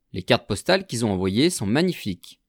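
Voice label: "les cartes postales quils ont envoyees sont", French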